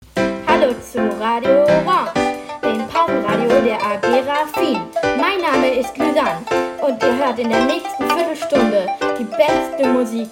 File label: jingle